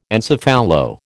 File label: "encephalo", American